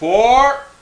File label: "fore", people; ClickArt 300,000